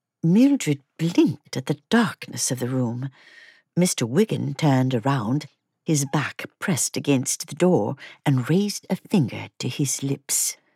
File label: Fantasy, British, A dragon hatches